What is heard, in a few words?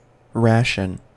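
"ration", US English; pronunciations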